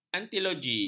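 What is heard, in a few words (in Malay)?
"antologi", Malay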